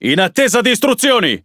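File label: Italian speech Pilots Fighter at position; World Of Warships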